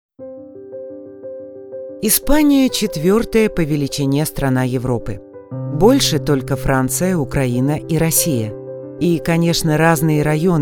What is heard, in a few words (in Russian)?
ЗАКАДР